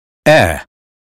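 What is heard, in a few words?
"head", American English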